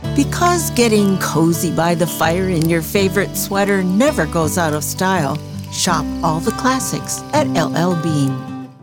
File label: commercial; Vibrant Voices; llbean